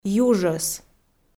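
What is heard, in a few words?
"южӧс", Komi, female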